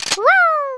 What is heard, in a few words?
collect, Speech, Greek; Worms Armageddon